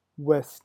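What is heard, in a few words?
"ouest", French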